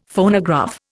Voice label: "Phonograph", Indian; Dictionary